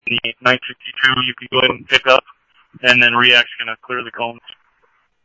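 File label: Police Department Traffic, scanner audio; SCTSDLE PD-Traff; H-Scottsdale; ScottsdalePolice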